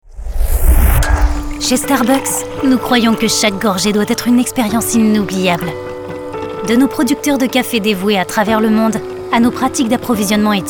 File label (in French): french corporate videos